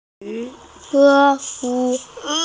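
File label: "e4yu2", Mandarin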